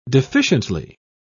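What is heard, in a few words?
"deficiently", British English, dictionary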